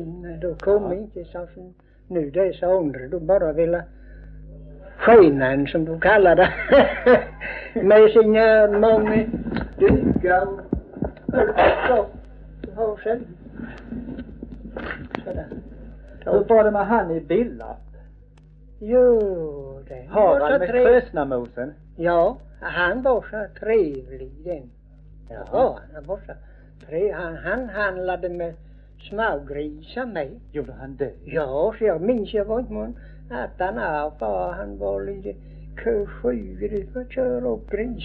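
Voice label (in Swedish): Örkened Skåne, dialekter; Folkminnen; Svenska sagor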